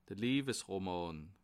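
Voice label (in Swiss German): "liewesroman", Bas Rhin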